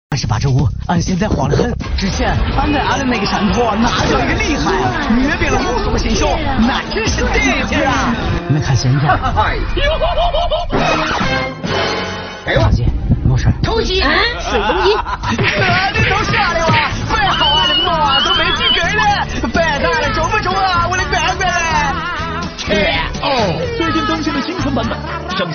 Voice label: 河南话信息流

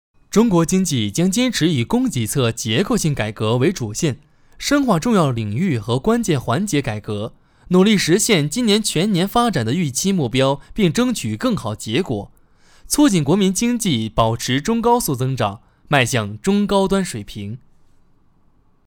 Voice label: 新闻类

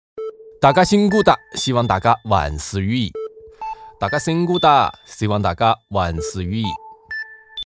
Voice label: 湖南话

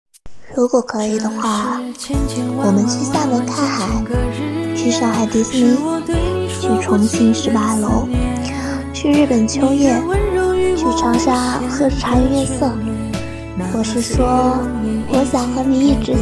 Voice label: 少女音配音

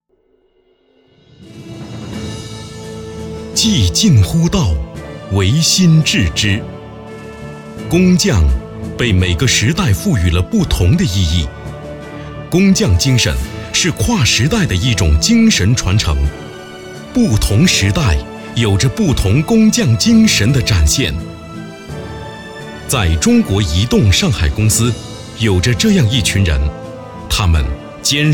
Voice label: 专题大气稳重; 中国移动工匠精神

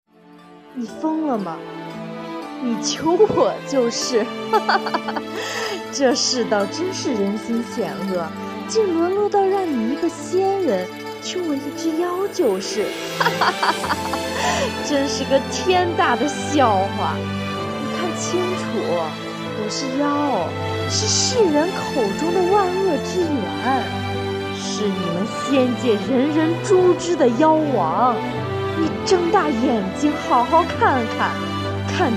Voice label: 爆发戏

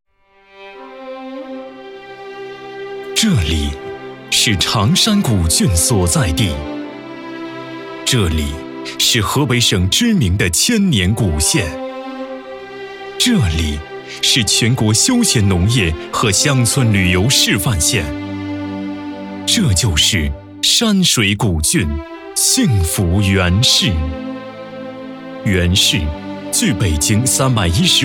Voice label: 城市宣传片; 元氏城市宣传片

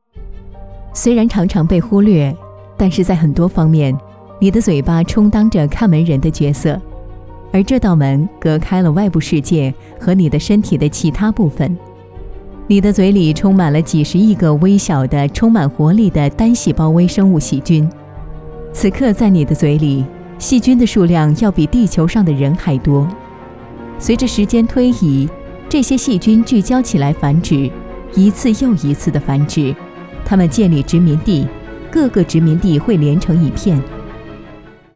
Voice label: 生物类解说漱口水

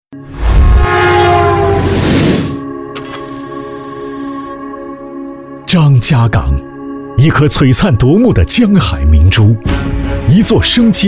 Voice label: 城市展馆宣传激昂大气; 张家港

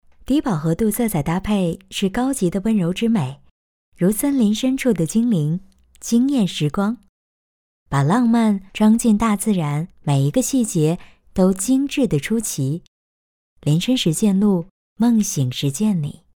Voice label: 小清新视频干音

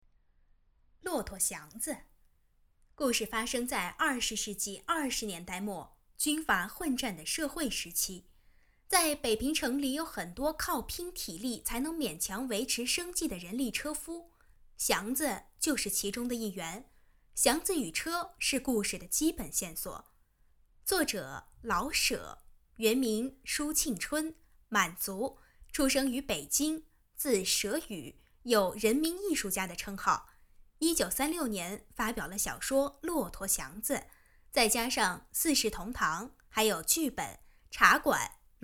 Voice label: 小学课件; 骆驼祥子

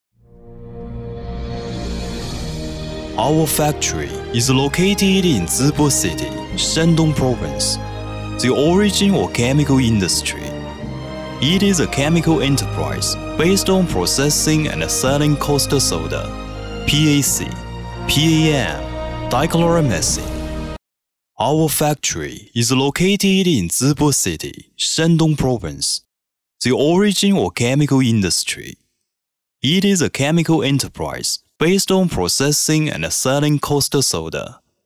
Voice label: 英文企业宣传片化工企业大气

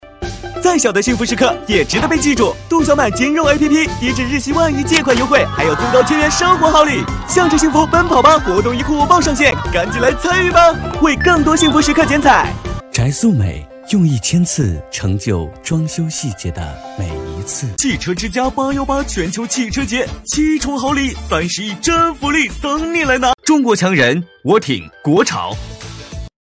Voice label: 广告 结尾

